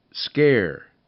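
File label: "scare", English; RhymeTest; bear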